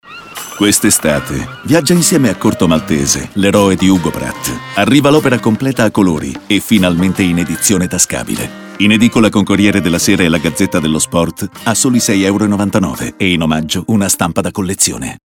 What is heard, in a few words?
Radio; Corto Maltese